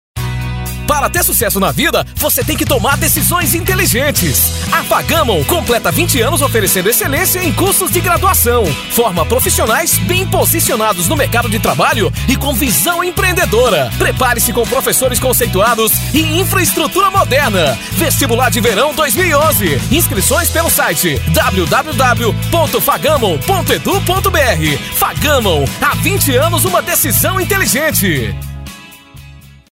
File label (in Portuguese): spot